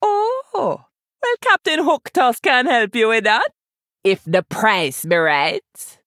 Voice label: Female Troll; Hooktusk